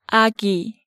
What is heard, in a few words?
"Agi", Korean